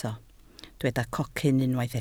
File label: "cocyn", Welsh